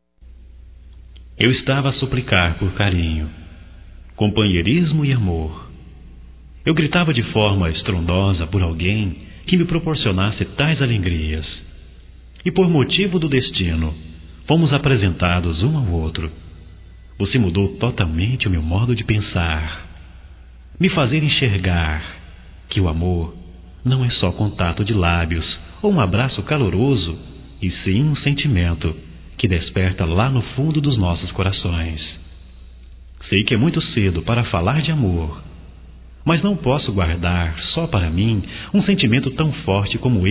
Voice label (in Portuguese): COMEÇO DE NAMORO, Românticas, masc